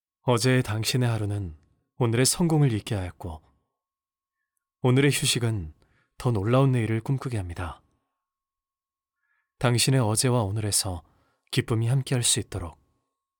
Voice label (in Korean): 광고 NOBGM; 스타자동차